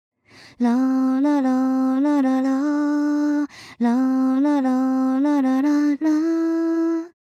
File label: Low; teto sv2 review